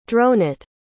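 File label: "dronet", English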